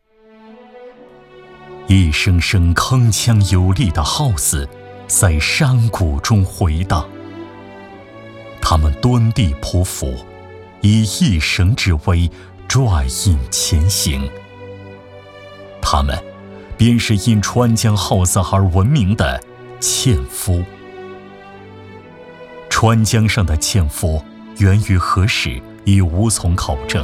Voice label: 男 纪实纪录; 绞滩

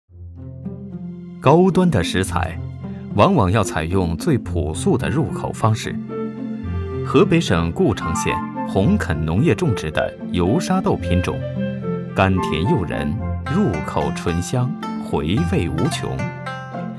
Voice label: 男 专题 美食

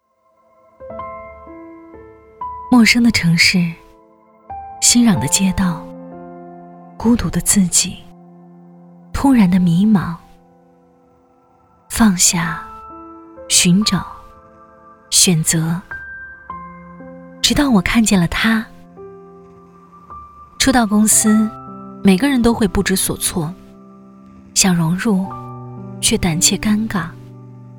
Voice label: 女 微电影 试音; 万家

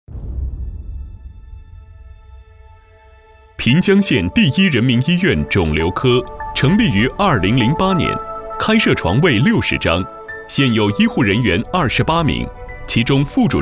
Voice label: 男 宣传片 大气自然 医院; 平江县第一人民医院肿瘤科

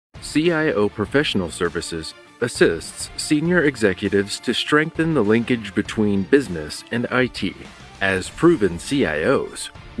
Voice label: 外籍英男 金融产品讲解 年轻活力

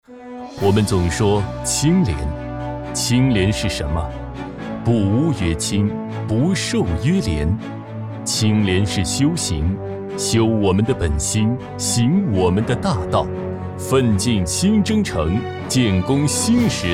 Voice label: 男 专题 党风廉政宣传; 守初心

